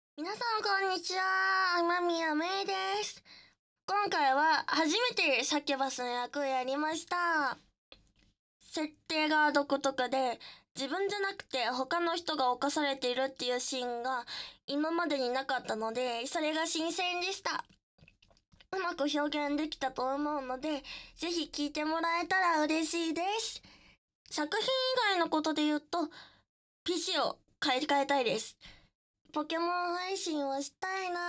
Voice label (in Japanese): フリートーク; 特殊搾精!時間を止められるサキュバスが家にやってきた!?